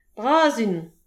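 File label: "bras int", Breton; petit precis de prononciation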